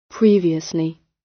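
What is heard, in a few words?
"previously", British English; Time, history; Thời gian, lịch sử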